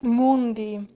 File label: "mundi", Proto-Germanic